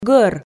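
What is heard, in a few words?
"гӧр", Komi, female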